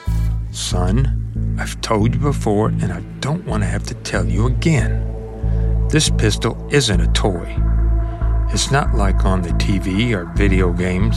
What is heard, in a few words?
Character Demo